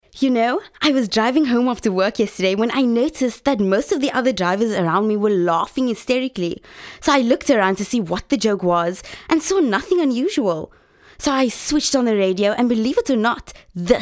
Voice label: chatty